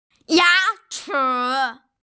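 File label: "ya chi", Mandarin Chinese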